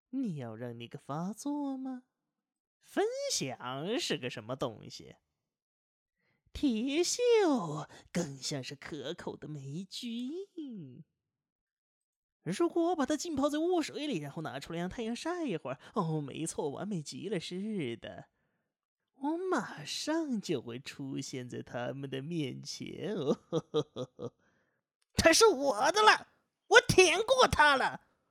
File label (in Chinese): 奸猾角色